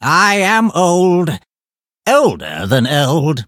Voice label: touch, en; Cookie Run